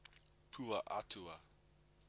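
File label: "pua atua", Māori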